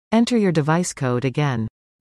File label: ADD N CODIGO, en woman